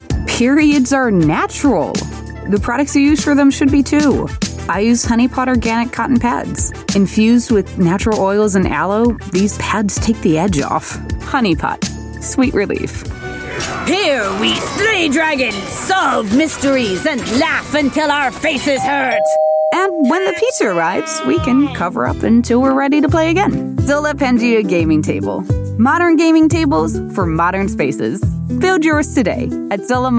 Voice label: Commercial